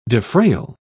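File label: "defrayal", British English, dictionary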